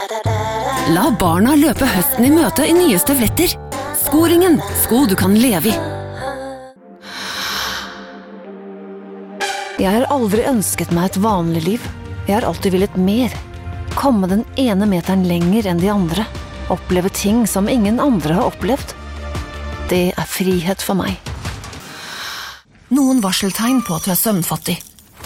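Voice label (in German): Norwegian, Werbung, Mix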